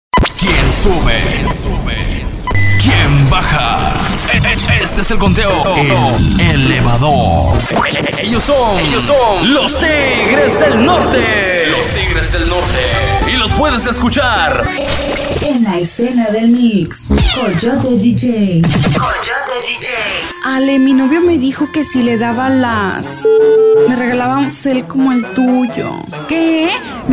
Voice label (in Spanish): demo voces nacionales